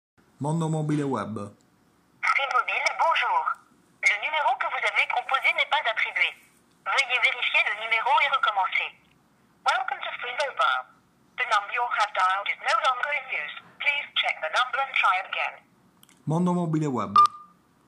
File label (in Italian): francese